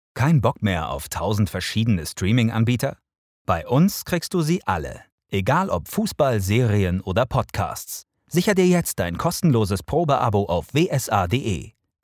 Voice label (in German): Werbung